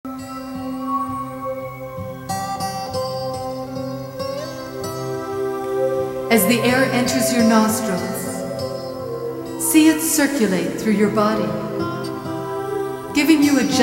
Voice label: Golden Spiral Meditation